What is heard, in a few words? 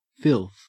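"filth", Australian English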